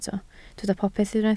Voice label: "popeth", Welsh